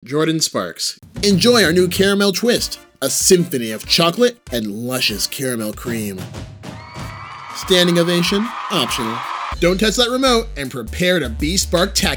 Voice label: Commercial Reel